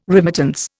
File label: "remittance", Indian